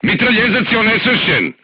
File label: voice message gunlayer contused, crew tanks serbian